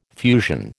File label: "fusion", American